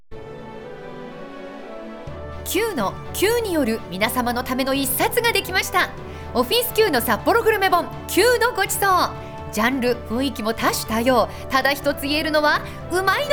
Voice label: voice cm